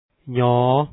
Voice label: y3 sound, lao alphabet sounds